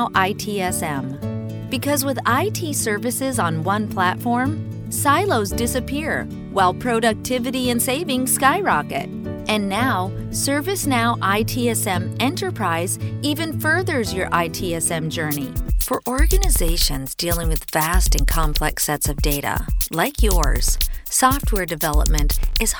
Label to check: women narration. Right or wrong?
Right